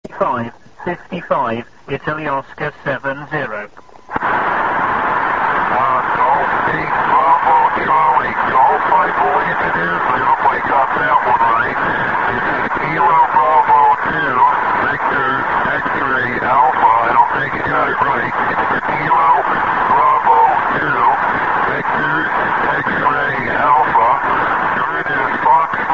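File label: amateur radio contact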